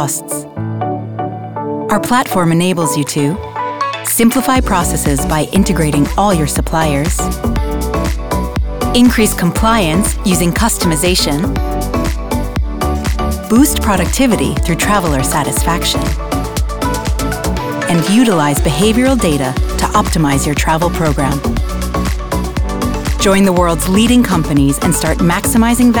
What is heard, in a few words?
english us and canada female corporate